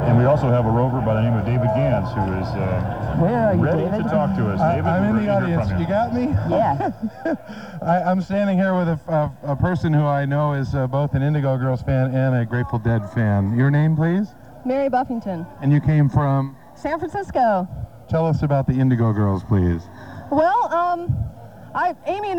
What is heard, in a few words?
reporter